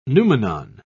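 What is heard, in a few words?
"noumenon", British English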